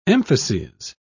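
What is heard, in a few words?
"emphases", British English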